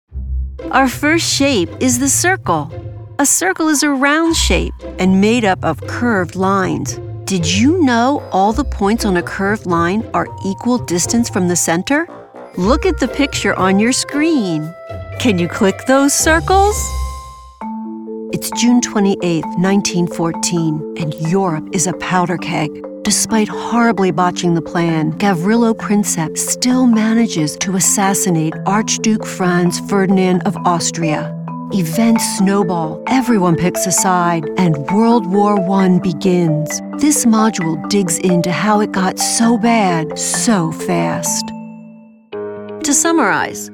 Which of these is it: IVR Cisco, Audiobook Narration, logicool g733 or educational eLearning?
educational eLearning